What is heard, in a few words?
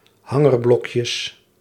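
"hangerblokjes", Dutch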